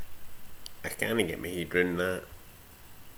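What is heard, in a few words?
"heid", slang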